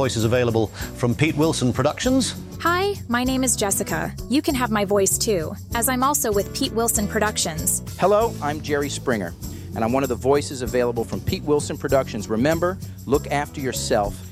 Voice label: voiceovers